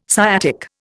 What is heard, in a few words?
"sciatic", Indian